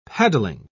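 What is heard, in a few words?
"paddling", British English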